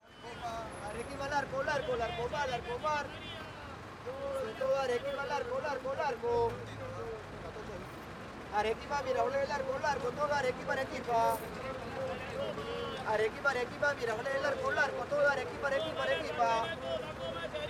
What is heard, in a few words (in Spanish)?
LLAMADORES DE COMBI LIMA; Autobus; carro; chofer; cobrador